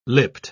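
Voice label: "lipped", British English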